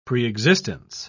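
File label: "preexistence", English, British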